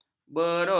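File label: "berus", Malay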